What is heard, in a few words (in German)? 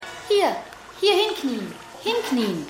Junge, Stimmproben; Seinfeld